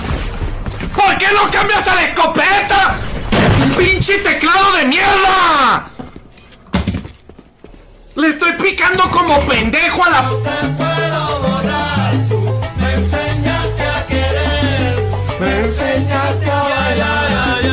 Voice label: raging